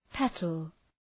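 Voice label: "petal", English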